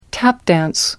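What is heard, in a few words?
"tap dance", British English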